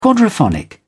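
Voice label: "quadraphonic", English, British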